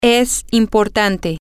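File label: it is important, spanish, female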